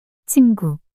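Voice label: "친구" Korean, female; 단어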